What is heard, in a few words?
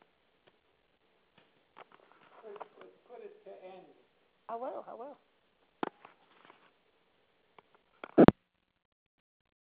voice message